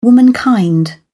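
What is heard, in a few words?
"womankind", British English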